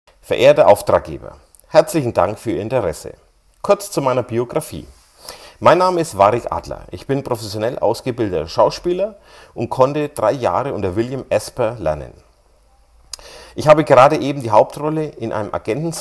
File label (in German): Werbespot